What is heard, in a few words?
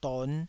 "don5", taiwanese syllables